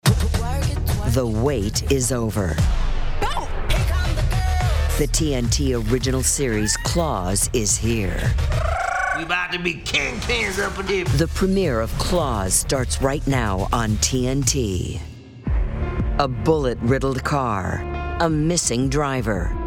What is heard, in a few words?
Promo